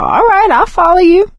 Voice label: lead on, survivor voice